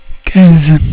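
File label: "quinze", French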